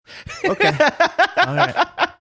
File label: laughing saying okay